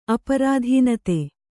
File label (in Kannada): "ಅಪ್ರಧಾನತೆ", Kannada